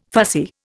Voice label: "fussy", Indian

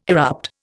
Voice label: "erupt", Indian

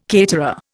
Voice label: "caterer", Indian

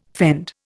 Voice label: "fend", Indian

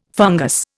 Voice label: "fungus", Indian

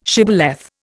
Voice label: "shibboleth", Indian

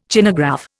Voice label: "Chinagraph", Indian

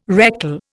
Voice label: "rectal", Indian

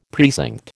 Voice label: "precinct", American

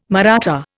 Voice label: "Maratha", Indian

